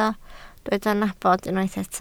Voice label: "nabod", Welsh

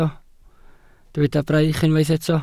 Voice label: "braich", Welsh

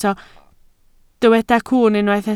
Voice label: "cwwn", Welsh

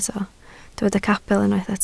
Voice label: "capel", Welsh

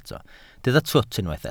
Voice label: "twt", Welsh

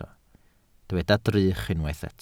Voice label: "drych", Welsh

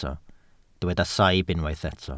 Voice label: "saib", Welsh

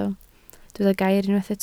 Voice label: "gair", Welsh

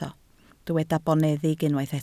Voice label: "boneddig", Welsh

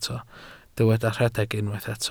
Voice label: "rhedeg", Welsh

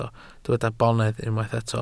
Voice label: "bonedd", welsh

